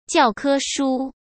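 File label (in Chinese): "教科书" Mandarin Chinese; hsk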